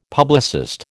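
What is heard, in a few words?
"publicist", American English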